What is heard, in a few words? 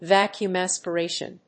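"vacuum aspiration", English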